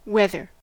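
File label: "whether", US English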